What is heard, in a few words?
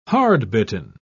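"hardbitten", British English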